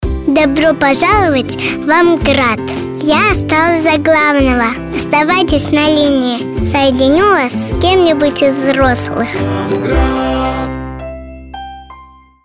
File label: IVR; Amgrad